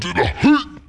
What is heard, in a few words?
orc frightened